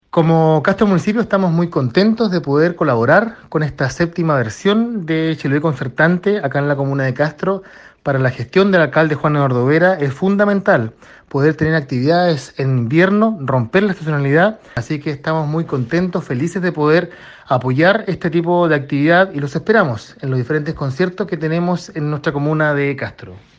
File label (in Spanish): Cuña; Chiloé Concertante